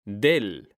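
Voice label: "DEL", Spanish; mekanta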